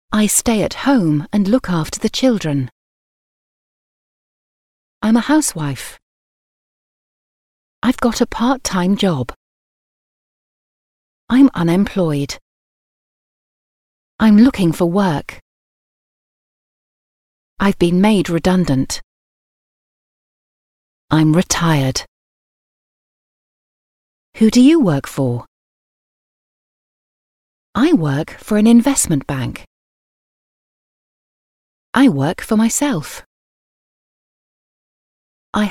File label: English Sentences